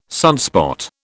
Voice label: "sunspot", British